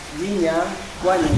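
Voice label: "vinya wanya" third person singular feminine, Yawa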